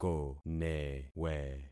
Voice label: "konewe", concat syllables; Affixing experiment